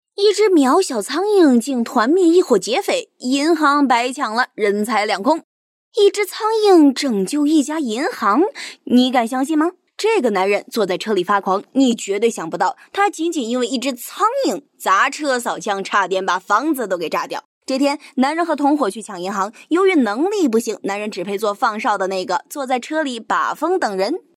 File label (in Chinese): 电影解说